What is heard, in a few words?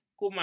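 "kumal", Malay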